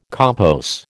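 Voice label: "compos", American English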